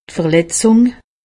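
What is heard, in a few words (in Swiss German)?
"verletzung", Alsatian; medecine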